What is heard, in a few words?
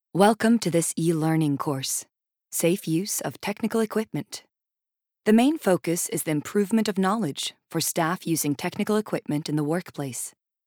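Norwegian e-learning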